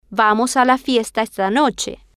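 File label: Spanish, female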